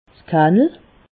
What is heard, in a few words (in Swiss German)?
"kannel", alsace